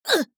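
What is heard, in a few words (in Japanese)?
「うっ」, 女の子 オラオラ系少女 ダメージ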